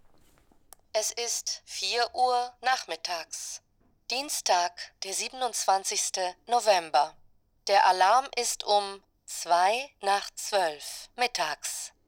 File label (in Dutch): D vrouw